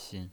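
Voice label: "xin", pinyin voice, neutral tone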